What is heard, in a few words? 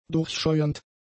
"durchscheuernd", german; adjectives